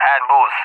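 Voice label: "at bulls", English; DCS; Allied Flight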